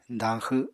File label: "dañxw", Apatani